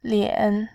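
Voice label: "lian3", Mandarin Chinese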